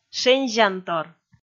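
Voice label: "шензянтор", Komi, female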